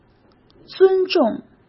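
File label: "尊重", Mandarin Chinese; hsk; chinese-flashcards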